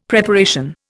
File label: "Preparation", Indian; Dictionary